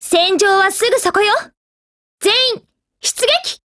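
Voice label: go attack, Japanese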